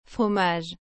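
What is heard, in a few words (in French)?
"fromage", French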